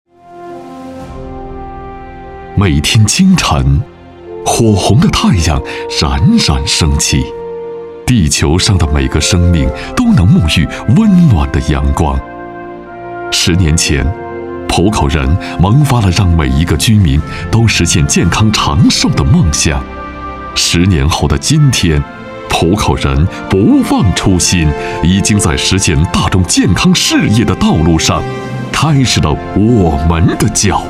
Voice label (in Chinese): 男, 专题; 家庭医生服务平台